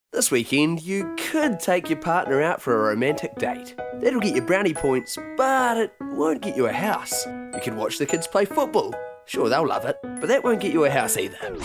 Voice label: New Zealand male montage, Fun Edgy Young Trendy New Zealand Male Voiceover